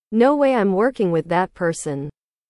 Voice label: english